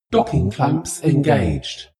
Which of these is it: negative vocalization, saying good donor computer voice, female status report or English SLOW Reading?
female status report